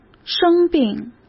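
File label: "生病", Mandarin Chinese; hsk; chinese-flashcards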